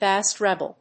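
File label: "bass-treble", English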